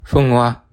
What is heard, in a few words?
go away, bonggi